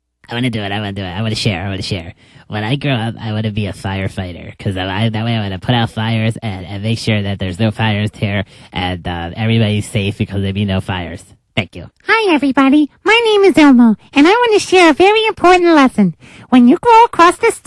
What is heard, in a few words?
cartoon